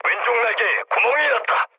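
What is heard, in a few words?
Korean left wing mood low; speech; War Thunder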